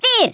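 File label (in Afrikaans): "Fire", Afrikaans; Speech; International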